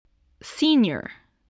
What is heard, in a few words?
"senior", english spelling